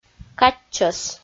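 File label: "катчӧс", Komi, female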